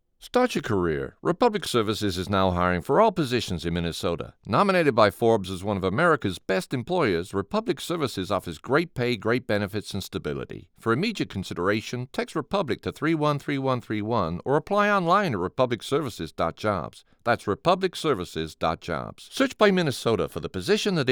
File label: advert